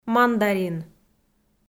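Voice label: "мандарин", Komi, female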